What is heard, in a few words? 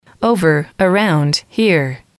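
over around here, English, female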